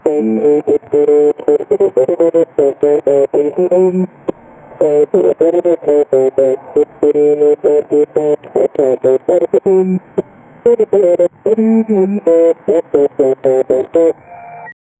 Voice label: you are listening to, broadcast